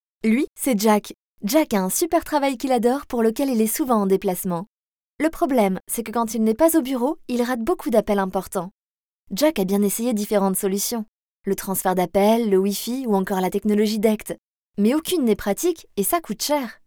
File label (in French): institutionnel voix off video scribing